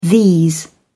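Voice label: "these", British English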